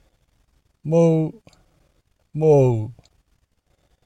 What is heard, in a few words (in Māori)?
Mou Mou, te reo maori